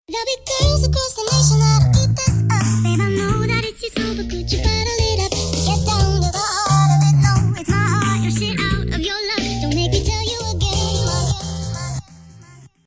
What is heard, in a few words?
Retro voice